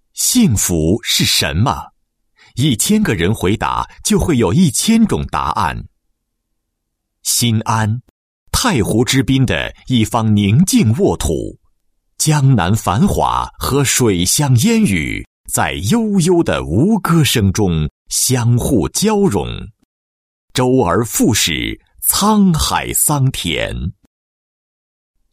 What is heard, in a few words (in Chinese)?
男国 专题 政府 街道; 新安街道